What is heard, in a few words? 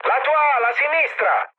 left wing mood med, speech it; War Thunder